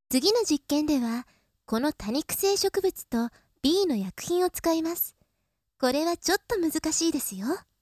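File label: cheerful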